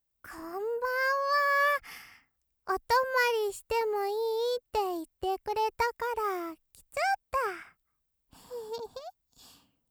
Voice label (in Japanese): ロリ こんばんわ